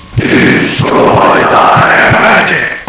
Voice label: HERETIC, WHISPER